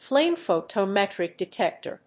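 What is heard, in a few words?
"flame photometric detector", English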